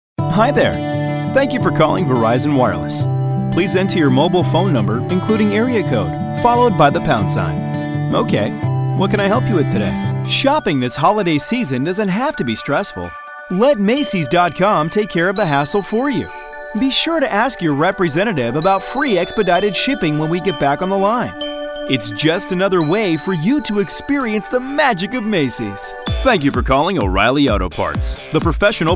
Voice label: IVR

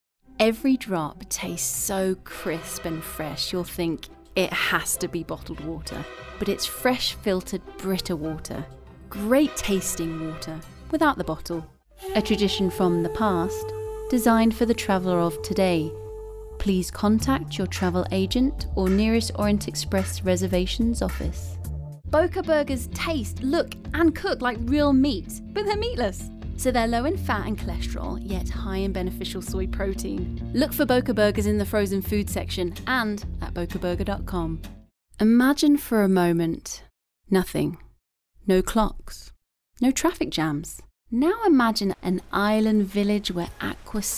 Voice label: Commercial

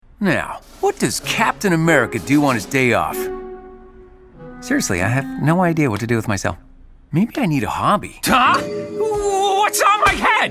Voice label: animation

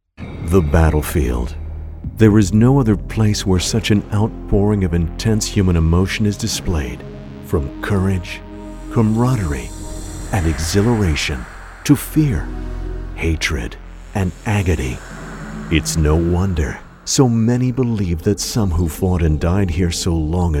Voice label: Narration

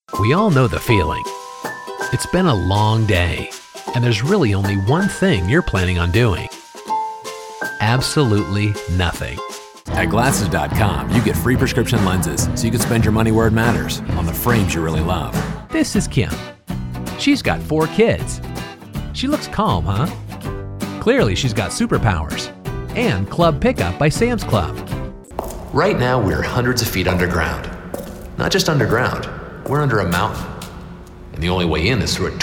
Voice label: Commercial

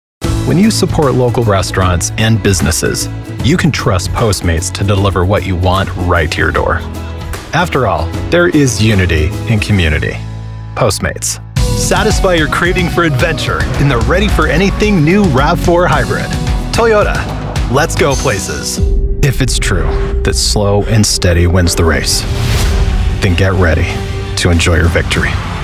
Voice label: Commercial Voice

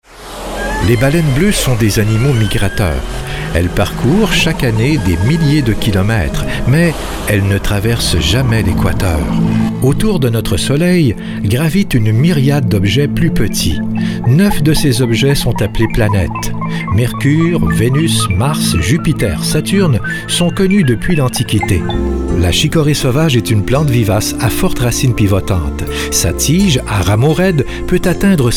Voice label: narration

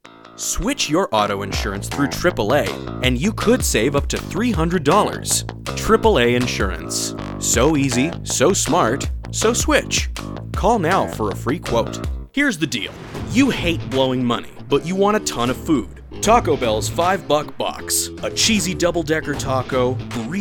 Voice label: Commercial

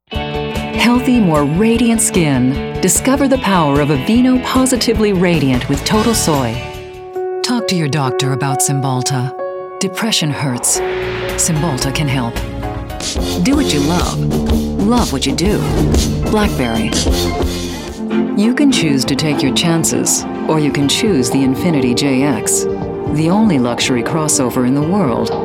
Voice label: Commercial

